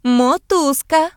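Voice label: string, Ukrainian; gcompris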